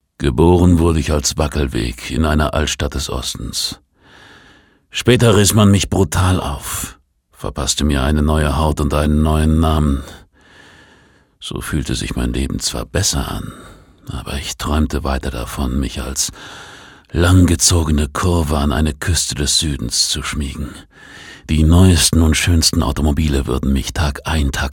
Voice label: Schauspiel Kraftvoll

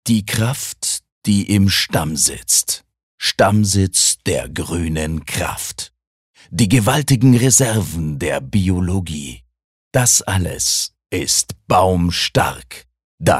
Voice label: Werbung Kraftvoll

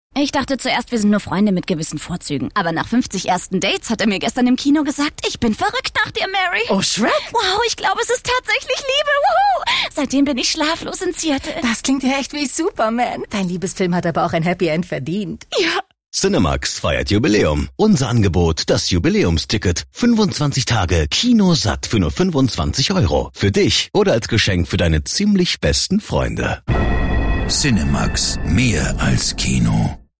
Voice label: Fremdtake Aufgeregt

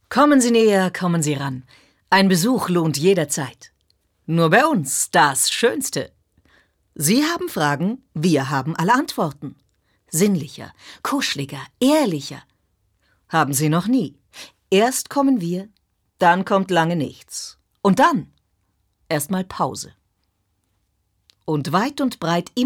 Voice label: Werbung, Dynamisch

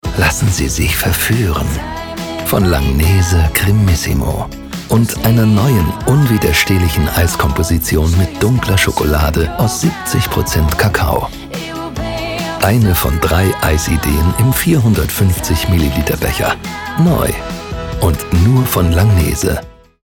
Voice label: Sinnlich; Fremdtake

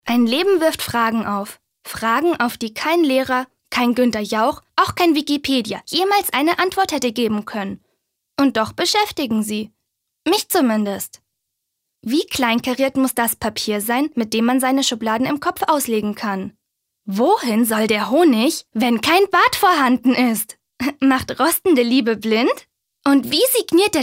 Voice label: Schauspiel Dynamisch

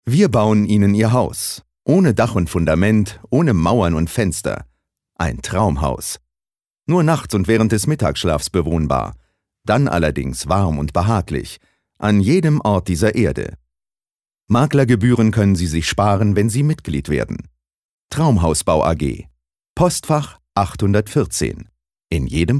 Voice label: Werbung Neutral

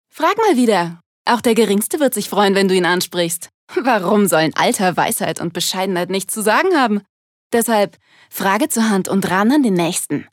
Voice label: Werbung Frech

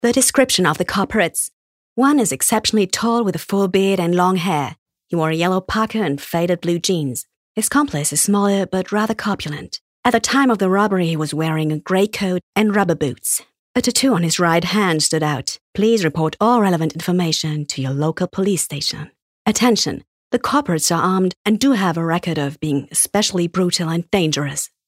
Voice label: Eng UK Kommentar Neutral

